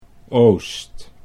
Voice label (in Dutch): "oost", Dutch